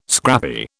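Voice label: "scrappy", British English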